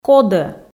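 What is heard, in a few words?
"кодӧ", Komi, female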